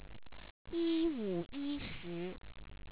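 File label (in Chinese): "yiwuyishi", Chinese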